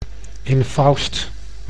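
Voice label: "infaust", Dutch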